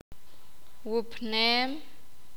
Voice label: "soplar", sakapulteko

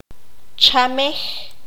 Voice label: "nariz", Q'anjob'al

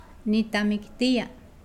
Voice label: Nawat palabras matar; pipil

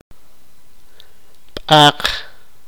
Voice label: "hueso", sipakapense

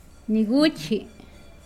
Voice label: Nawat palabras dormir; pipil

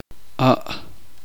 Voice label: uspanteko pierna

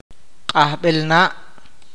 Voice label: tia la hermana menor de su madre, awakateko

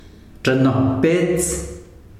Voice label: cuello, yanesha

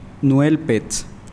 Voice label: Nawat palabras pecho; pipil

